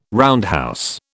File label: "roundhouse", British